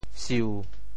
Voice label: "siu7", Teochew; 潮州; 米饭软糯Q弹有嚼劲儿